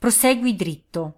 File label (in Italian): Straight, Italian, female